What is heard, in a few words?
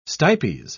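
"stipes", English, British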